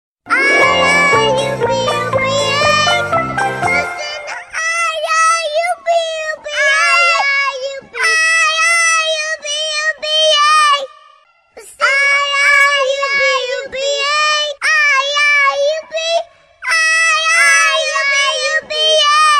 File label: Cute Baby Saying